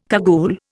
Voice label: "cagoule", Indian

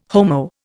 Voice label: "Homo", Indian

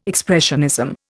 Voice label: "expressionism", Indian

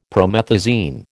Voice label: "promethazine", American

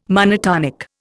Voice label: "monotonic", Indian

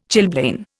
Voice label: "chilblain", Indian

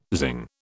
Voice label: "zing", British

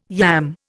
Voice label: "yam", Indian

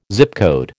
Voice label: "Zip code", American

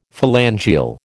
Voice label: "phalangeal", American

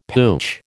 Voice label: "pooch", American

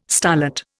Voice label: "starlet", Indian

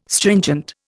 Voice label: "stringent", Indian

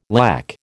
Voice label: "lakh", American

